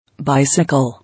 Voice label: "Bicycle", English

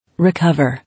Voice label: "Recover", English

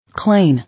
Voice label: "Clean", English

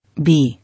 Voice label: "Bee", English

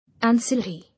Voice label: "Ancillary", English

